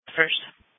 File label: Police Tactical Encrypted; sdr